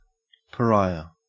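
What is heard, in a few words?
"pariah", Australian English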